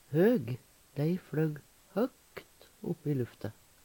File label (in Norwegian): "høg", Numedalsmål; Adjektiv